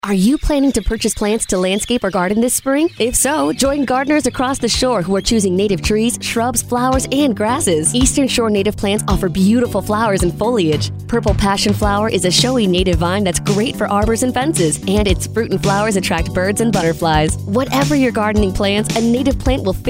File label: radio ad; plantes natives; shore beautiful